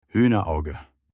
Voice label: corn in german